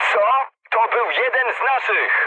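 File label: Polish player killed ally mood medium; speech; War Thunder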